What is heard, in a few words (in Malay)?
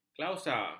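"klausa", Malay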